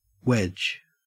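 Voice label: "wedge" English, Australian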